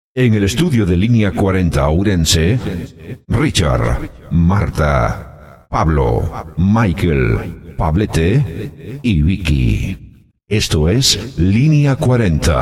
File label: jingle